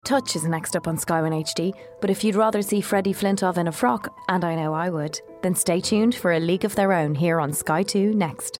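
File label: English, advert, Irish; GoLocalise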